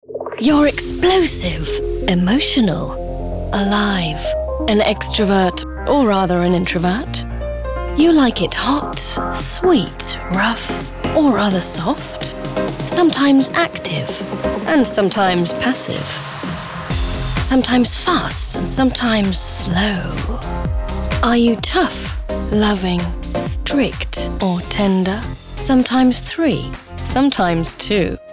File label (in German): Werbung Englisch; Beate Uhse